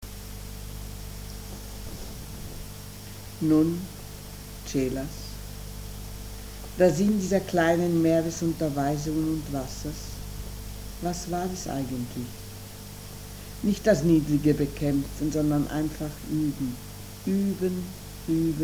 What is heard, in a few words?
Meditation